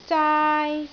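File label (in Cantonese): "zaai1", Cantonese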